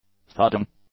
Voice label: "thudding", English; adjectives